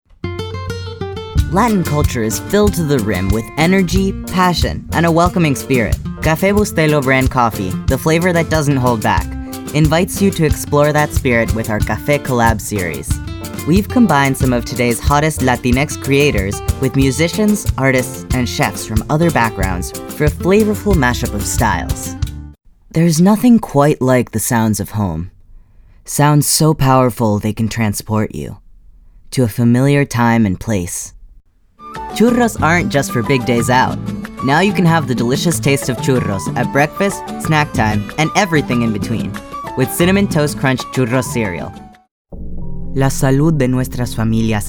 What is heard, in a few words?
Voiceover Commercial; Voiceover for Commercials